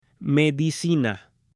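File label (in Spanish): "MEDICINA" Spanish; AppLenguaje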